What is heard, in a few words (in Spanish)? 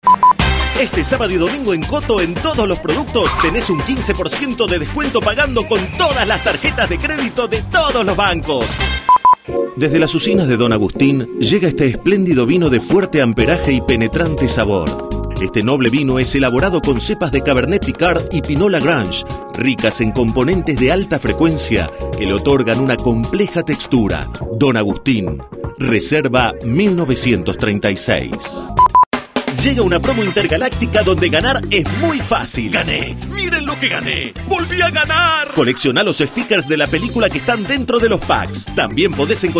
Medios Impacto Dinamica, voces masculinas